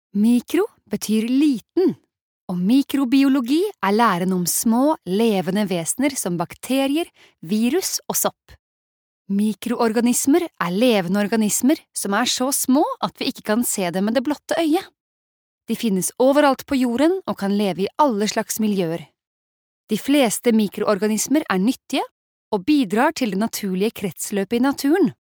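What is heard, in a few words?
Norwegian e-learning; norsk; kvinne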